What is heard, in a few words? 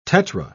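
"tetra", English, British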